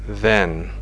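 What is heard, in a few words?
"then", English; fonetica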